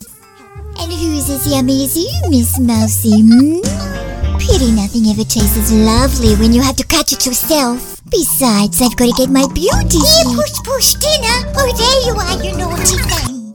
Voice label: Old Lady